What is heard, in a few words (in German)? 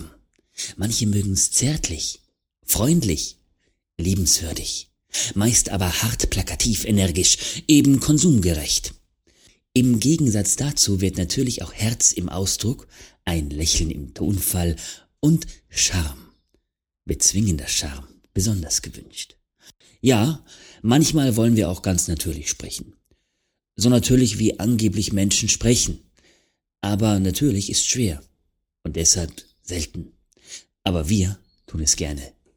werbung